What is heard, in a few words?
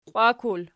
"qiwakul", Archi